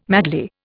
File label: "madly", Indian